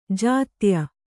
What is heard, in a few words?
"ಜೊತ್ತಾಯ", Kannada